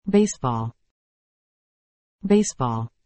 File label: "baseball", English